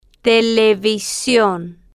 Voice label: "te-le-vi-sion", Spanish

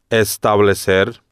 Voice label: "establecer", Spanish

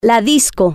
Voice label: "la Disco", Spanish

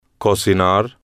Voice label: "cocinar", spanish

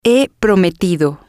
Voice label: "he Prometido", spanish

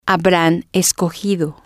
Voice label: "habran Escojido", spanish

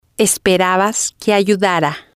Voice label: "esperabas Que Ayudara", spanish

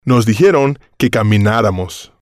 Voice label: "nos Dijeron Que Caminaramos", spanish

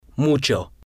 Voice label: "mucho", spanish

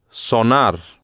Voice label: "sonar", Spanish

